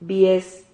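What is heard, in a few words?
"bies", Spanish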